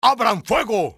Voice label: open fire, spanish soldier; enemy; XBOX